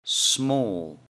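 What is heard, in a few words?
"small", English; Describing Clothes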